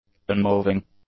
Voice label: "unmoving", English; adjectives